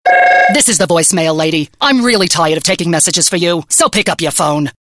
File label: Angry Voicemail Lady